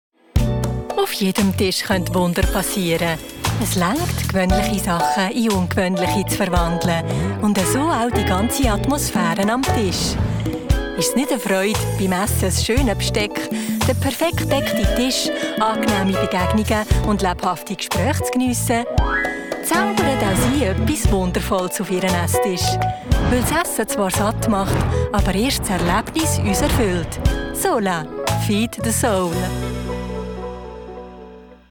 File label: German Switzerland corporate videos